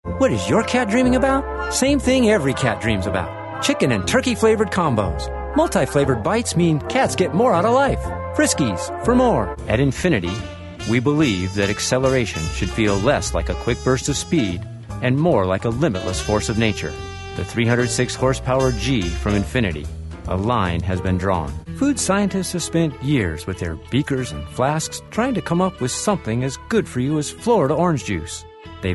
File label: male US retail